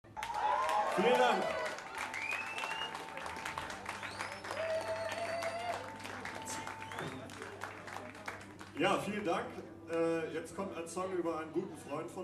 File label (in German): ansage